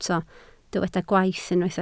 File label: "gwaith", Welsh